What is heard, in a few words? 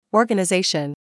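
"Organization", British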